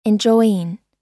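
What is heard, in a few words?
"enjoying", US English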